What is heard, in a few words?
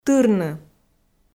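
"тырны", Komi, female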